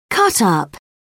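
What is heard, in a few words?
"cutup", British English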